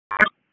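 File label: EMS Dispatch, p25; wake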